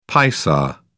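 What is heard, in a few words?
"paisa", British English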